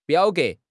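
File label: "biao3 ge2", Chinese; HSK4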